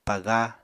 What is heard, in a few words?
"paga", Apatani